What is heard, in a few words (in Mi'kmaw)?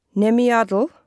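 "nemiatl", Mi'kmaq; wowkwis aqq kaqaquj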